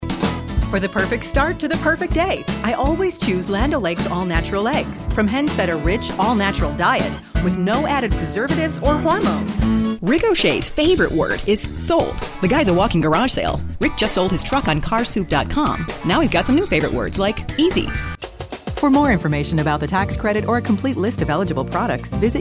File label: Commercial